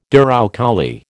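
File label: "douroucouli", American English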